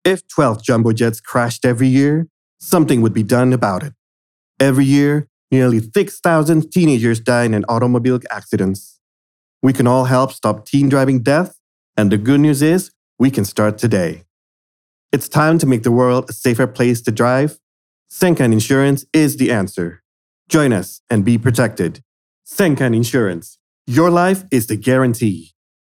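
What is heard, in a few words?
formal English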